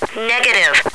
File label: negative, radio, woman